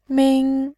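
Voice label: "min", Mandarin, tone 1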